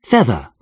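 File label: "Feather", English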